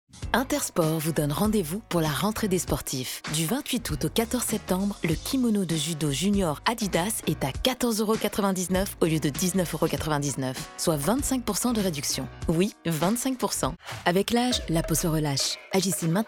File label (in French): Demos Commerciales Francais